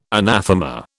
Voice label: "anathema", British